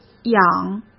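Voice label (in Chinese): "养", Mandarin Chinese; chinese-flashcards; hsk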